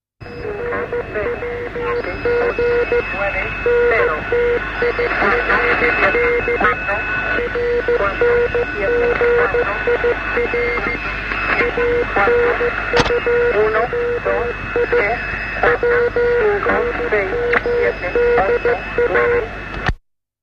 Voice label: spanish counting

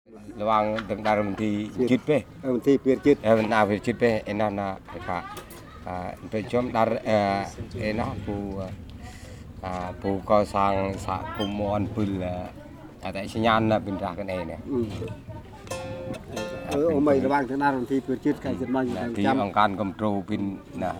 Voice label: Tampuan voices, Cambodia; Les Cartes Postales Sonores; NOISE ON EARTH Vol1

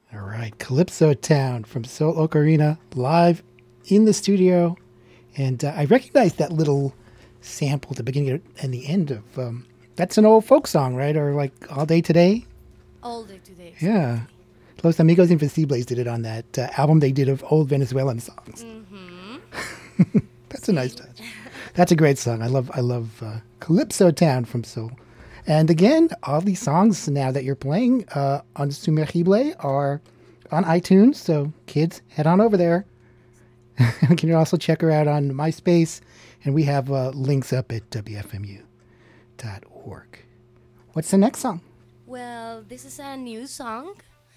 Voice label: Interview; Live at WFMU on Transpacific Sound Paradise Dec 3rd 2011

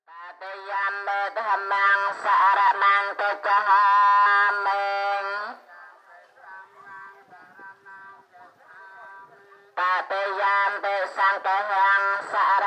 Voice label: Angkor monk on loudspeaker, Cambodia; Les Cartes Postales Sonores; NOISE ON EARTH Vol1

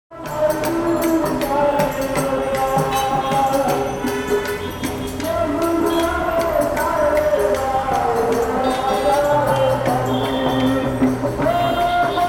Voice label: Election propaganda, Bangladesh; Les Cartes Postales Sonores; NOISE ON EARTH Vol2

